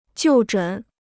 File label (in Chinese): "就诊", Chinese